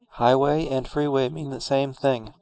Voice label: speech est